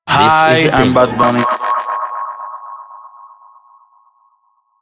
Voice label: ARTIST DROP